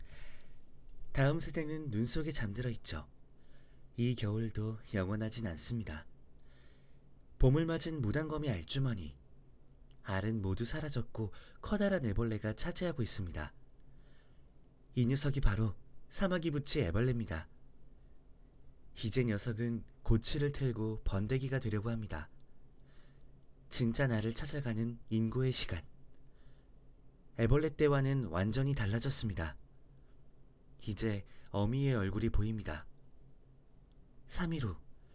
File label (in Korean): 상냥 나레이션 고음